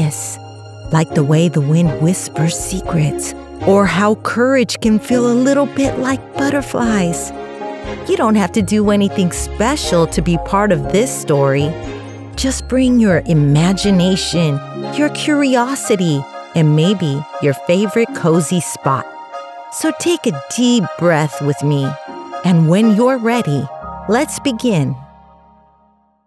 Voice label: Children Podcast, Children Podcasting - Adventure, Female Voice; Santa